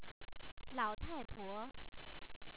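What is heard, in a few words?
"la3ota4ipo2", Chinese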